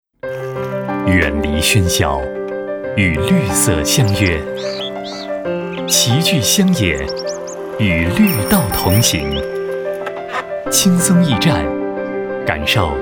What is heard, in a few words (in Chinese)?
男 广告 宣传; 滨水绿道